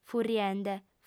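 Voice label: "furriende", Sardinian, female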